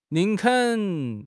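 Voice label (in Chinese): "宁肯", Chinese; HSK6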